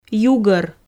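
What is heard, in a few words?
"югор", Komi, female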